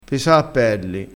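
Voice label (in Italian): "pesaappelli", dialettale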